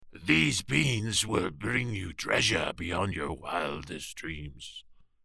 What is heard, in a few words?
Old Man